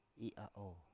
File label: "i7a7o7", Biak